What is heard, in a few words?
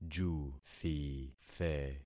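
"juthithe", concatenated syllables; Affixing experiment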